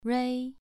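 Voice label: "rui" Mandarin Chinese, second tone, pronunciation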